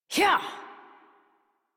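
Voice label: horse start adult, English; Warframe; Duviri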